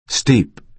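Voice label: "steep", American English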